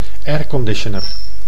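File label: "airconditioner", Dutch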